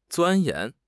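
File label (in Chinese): "钻研", Chinese; HSK6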